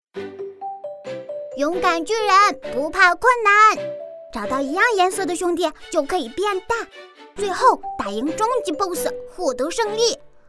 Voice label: 女 童声 广告 游戏宣传

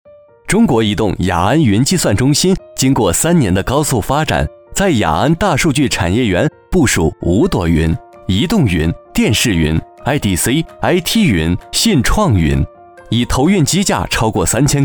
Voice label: 男 课件; 中国移动云

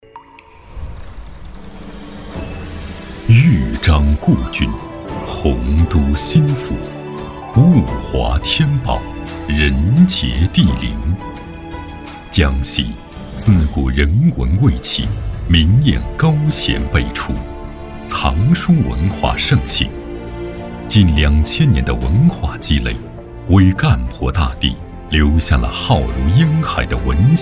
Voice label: 男 纪录 人文 片 人文讲述 古籍保护; 江西省图书馆古籍保护

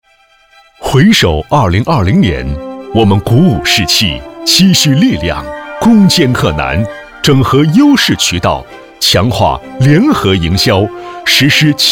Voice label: 男 大气; 春天行动

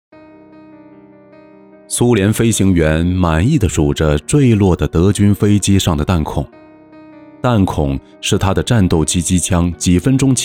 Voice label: 男 纪录片 军事; 卫国战争

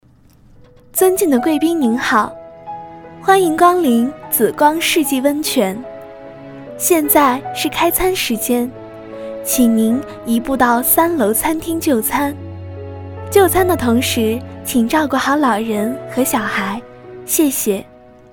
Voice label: 女 酒店语音 尊敬的贵宾您好

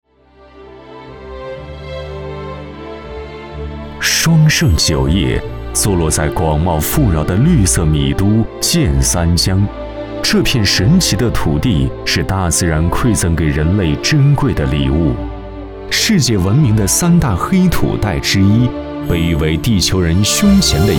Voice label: 男 专题 酒业沉淀

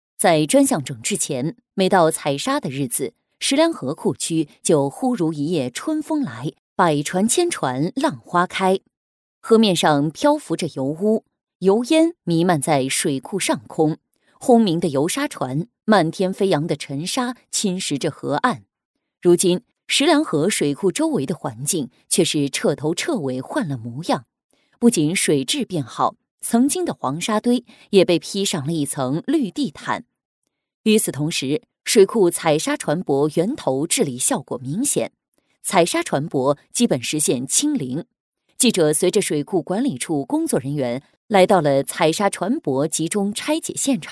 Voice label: 女 新闻 庄重; 石梁河

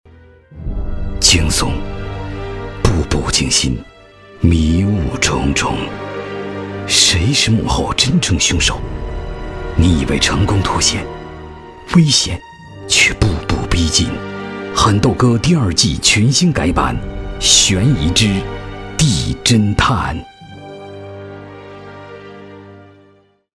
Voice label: 男-悬疑

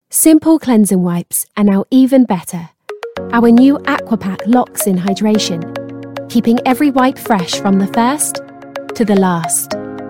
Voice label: 外籍英语女声 护肤品广告 亲切自然

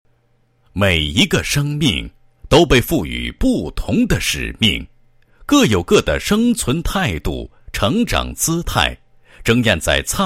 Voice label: 男 学校 幼儿园专题片; 镇海

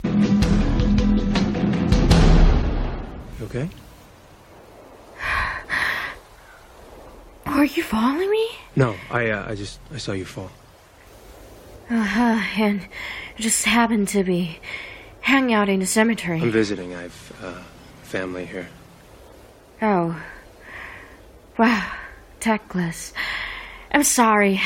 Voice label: 英文 影视剧配音

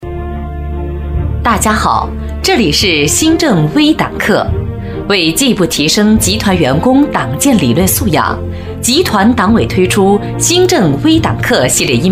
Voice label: 女 专题 端庄大气 党课

